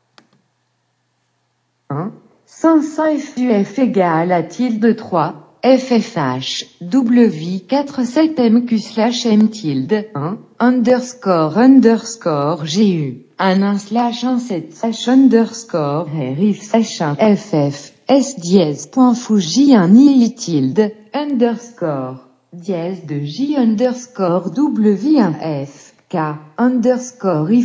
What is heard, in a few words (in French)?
portrait français avec voix; portrait parlant avec étude de caractère